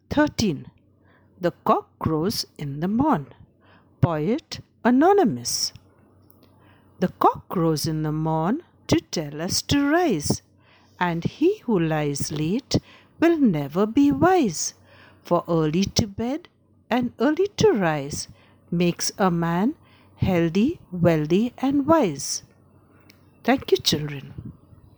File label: Recitation